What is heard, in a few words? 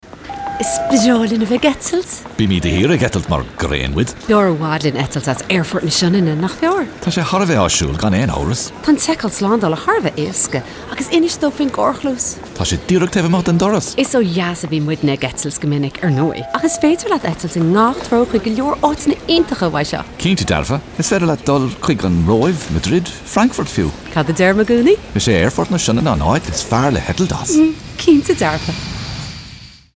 Irish; 30sec; Shannon Airport